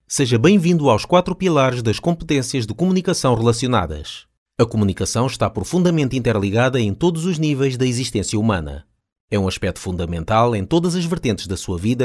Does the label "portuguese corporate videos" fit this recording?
Yes